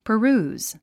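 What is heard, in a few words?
"peruse", English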